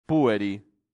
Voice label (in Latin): "pueri", latin; santillana